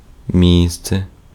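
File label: Ukrainian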